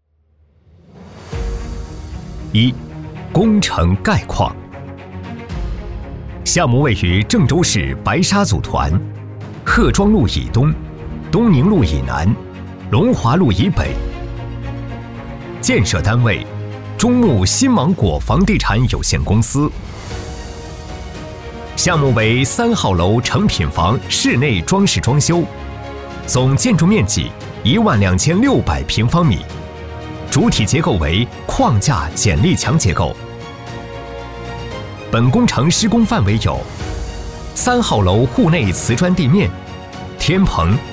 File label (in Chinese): 男 工程解说; 新芒果御象湖项目精装修工程